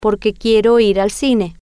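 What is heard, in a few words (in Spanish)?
Porque Quiero Ir Cine, spanish, female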